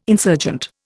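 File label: "insurgent", Indian English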